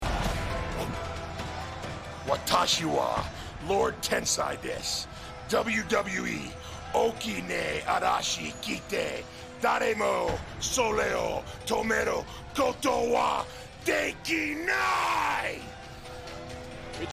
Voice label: speaks Japanese; Tensai

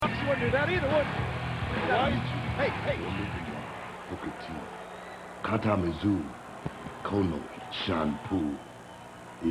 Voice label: practicing japanese; booker

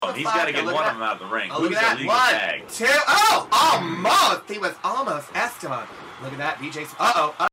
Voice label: announcing; pgw

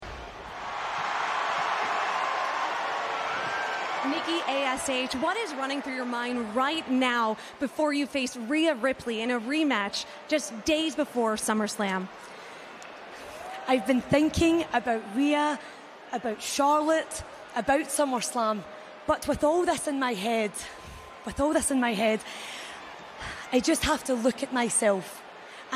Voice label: promo; raw pre summerslam

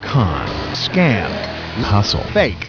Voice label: announcer; NBC TV Special

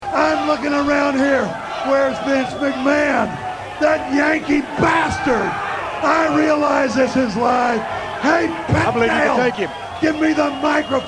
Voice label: rant; terry funk rant on shotgun

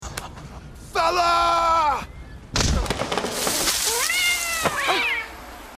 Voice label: yells; fella

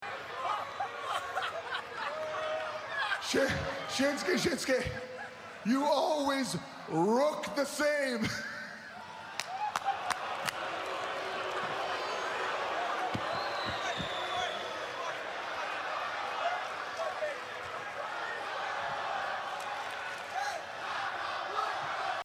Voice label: accent; jinder shinsuke

